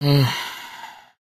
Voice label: idle, woman

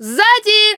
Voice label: enemy, characters voice human woman fight; Stalker Anomaly

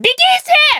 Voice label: grenade, human woman fight; Stalker Anomaly

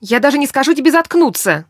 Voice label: kill wounded, woman; Stalker Anomaly; characters voice human help

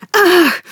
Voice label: help, human woman wounded heavy; Stalker Anomaly

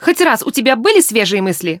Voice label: reaction joke, human woman; Stalker Anomaly

